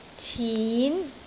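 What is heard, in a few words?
"cin2", Cantonese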